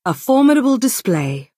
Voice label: Dunmer female good game